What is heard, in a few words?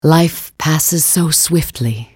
attack, en US; Anivia